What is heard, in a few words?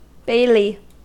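"bailey" US English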